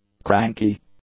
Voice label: "cranky", English; adjectives

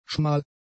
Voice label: "schmal", German; adjectives

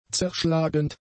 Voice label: "zerschlagend", German; adjectives

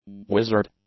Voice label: "wizard", english; adjectives

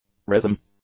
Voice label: "rhythm", English; nouns

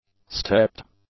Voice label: "stepped", English; adjectives

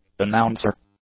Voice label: "announcer", English; nouns

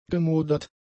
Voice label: "gemodert", German; adjectives

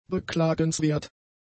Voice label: "beklagenswert", German; adjectives

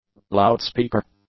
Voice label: "loudspeaker", English; nouns

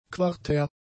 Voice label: "quartär", German; adjectives

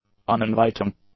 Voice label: "uninviting", English; adjectives

